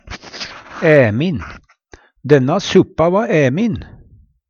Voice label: "æmin", Numedalsmål; Adjektiv

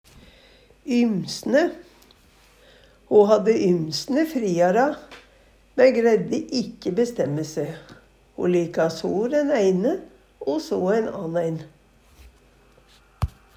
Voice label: "ymsne", Numedalsmål; Ho hadde ymsne friara, men greidde ikkje bestemme se. Ho lika so den eine o so ein anein

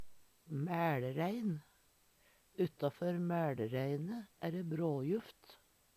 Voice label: maelrein, Numedalsmål; Substantiv hokjønn; Veggli

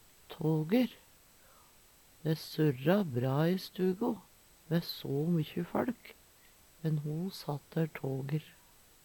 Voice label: tager, Numedalsmål

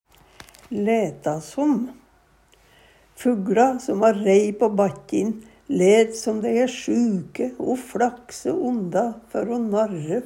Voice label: laeta sam, Numedalsmål

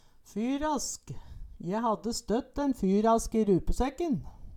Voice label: "fyrask", Numedalsmål

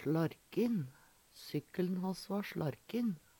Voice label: "sLarkin", Numedalsmål; Sykkel`n hass va sLarkin.; Adjektiv; Veggli

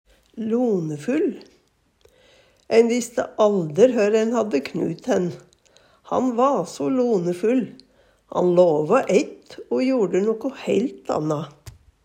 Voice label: lonefull, Numedalsmål

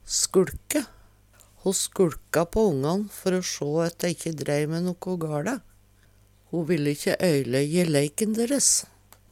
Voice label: "skuLke", Numedalsmål

